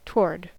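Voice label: "toward", US English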